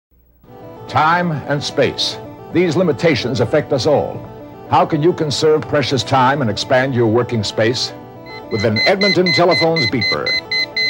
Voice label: pager commercial; Leonard Nimoy; EdTel; Phone Losers of America Media Pack 2017